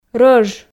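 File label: "рӧж", Komi, female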